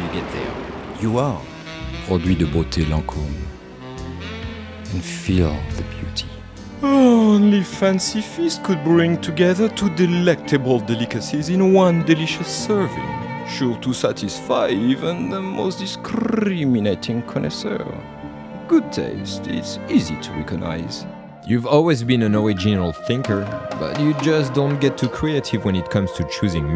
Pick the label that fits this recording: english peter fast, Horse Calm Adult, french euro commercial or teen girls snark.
french euro commercial